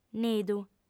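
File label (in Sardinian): "nidu", female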